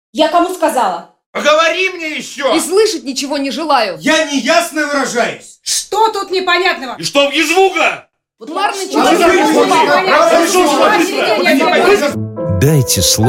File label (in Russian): audio versiya reklamnogo rolika dialogi